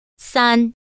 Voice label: number three Mandarin

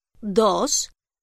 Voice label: number two spanish

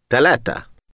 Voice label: number three Arabic

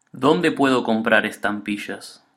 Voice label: where can i buy stamps Spanish

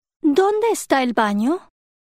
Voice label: bathroom spanish

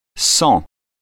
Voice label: number hundred French

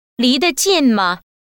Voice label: is it near mandarin

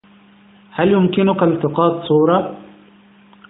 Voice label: Can you take a photo Arabic

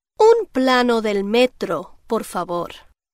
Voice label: subway map Spanish

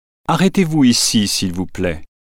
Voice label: please stop here french

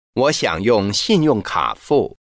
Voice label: credit card Mandarin

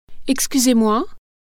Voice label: excuse me french

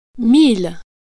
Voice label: number thousand French

